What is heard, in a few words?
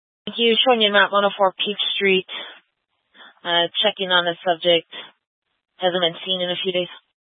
Sheriff Dispatch, scanner; Mesa County